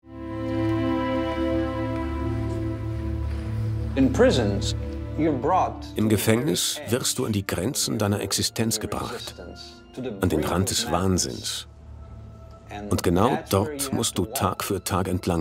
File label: Doku voice over